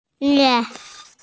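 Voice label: "tian2", Mandarin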